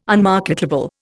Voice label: "unmarketable", Indian

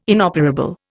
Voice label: "inoperable", Indian

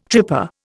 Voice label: "tripper", Indian

